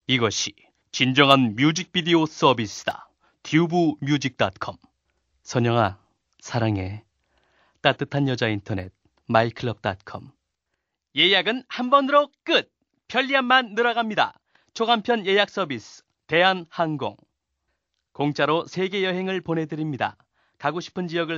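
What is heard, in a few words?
Korean male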